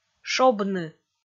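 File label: "шобны", Komi, female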